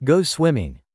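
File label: "go swimming", English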